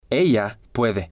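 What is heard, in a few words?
"ella puede", Spanish, male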